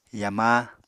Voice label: "yama", Apatani